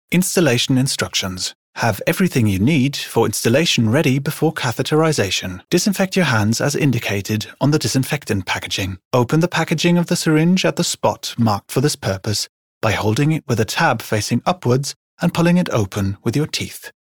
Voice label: ENG Instruction; Farco; LOFT VOICES